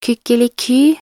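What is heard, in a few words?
"kykeliky", Norwegian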